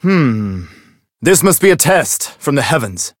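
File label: Voice encounter fear; claude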